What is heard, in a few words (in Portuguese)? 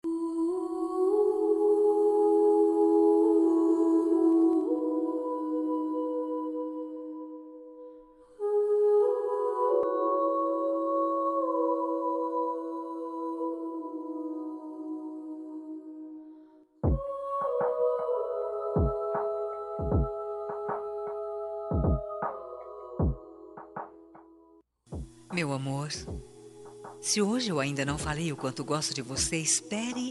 rom marido fem linda, Voz Feminina; Telemensagem Romântica – Marido Linda